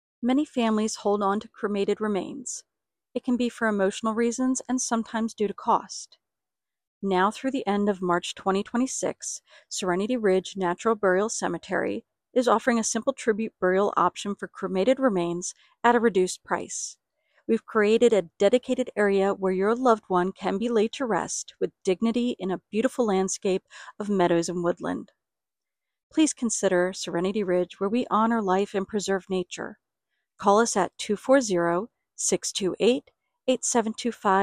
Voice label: voiceover; Cremation Renewal